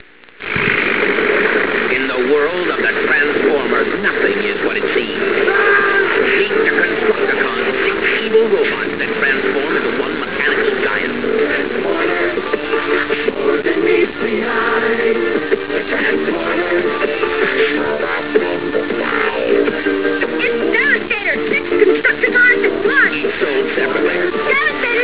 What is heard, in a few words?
commercial